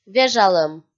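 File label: "вежалӧм", Komi, female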